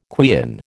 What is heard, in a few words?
"quean", American